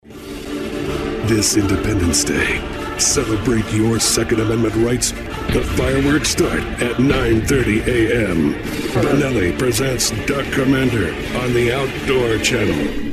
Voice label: promo, men

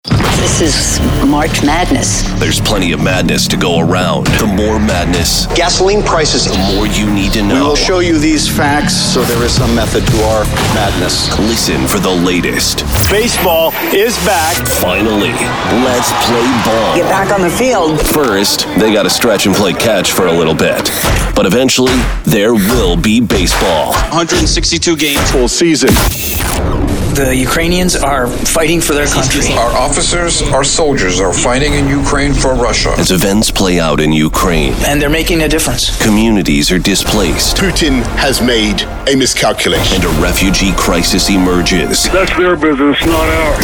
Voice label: radio imaging men news talk

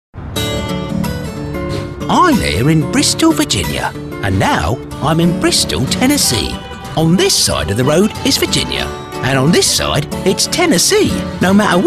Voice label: languages men british

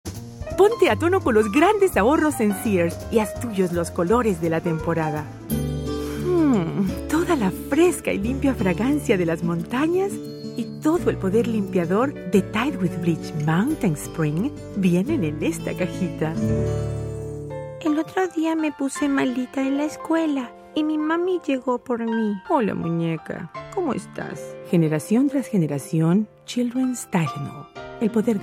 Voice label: languages spanish

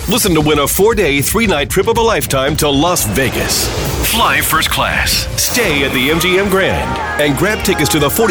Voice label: radio country, radio imaging

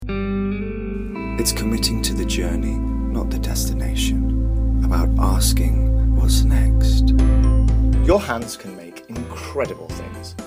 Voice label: languages men british commercial

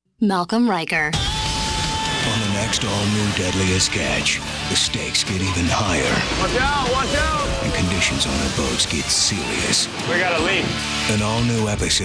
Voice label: promo, men

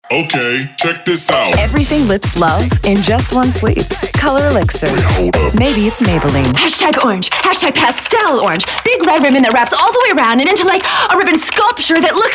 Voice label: commercial women

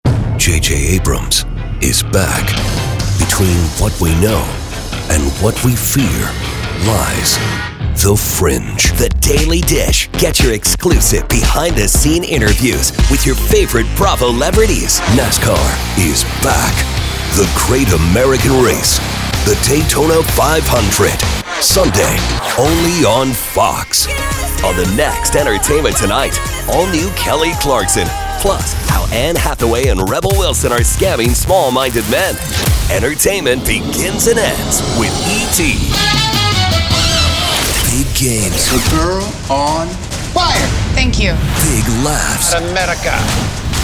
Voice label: promo men

